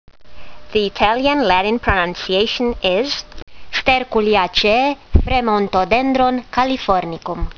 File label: latin; Fremontodendron californicum